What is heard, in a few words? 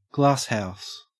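"glasshouse", Australian English; pronunciations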